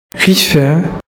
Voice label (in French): rifain prononciation